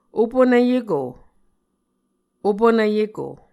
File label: "opunvyeko", Muscogee